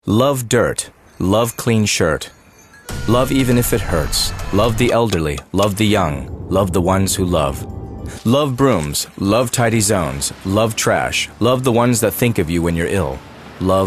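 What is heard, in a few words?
english us male, voice samples commercial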